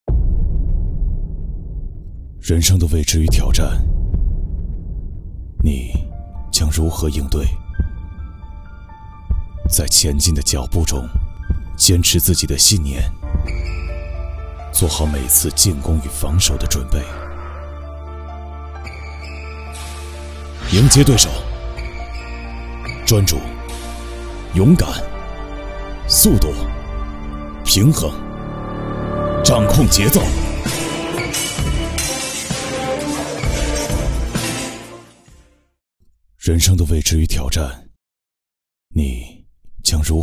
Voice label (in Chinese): 男 成熟广告; 居正击剑